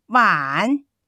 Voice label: "碗", Chinese

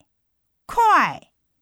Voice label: "块", Chinese

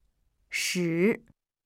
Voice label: "十", Chinese

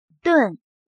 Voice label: "顿", Chinese

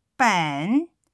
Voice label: "本", Chinese

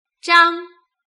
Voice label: "张", Chinese

